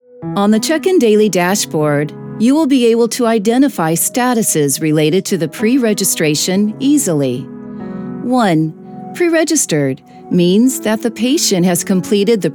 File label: E-Learning